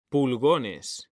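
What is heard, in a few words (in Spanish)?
"PULGONES", Spanish; mekanta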